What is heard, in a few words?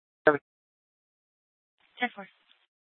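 police department dispatch; Mesa PD; 3 Red Mnt Dispatch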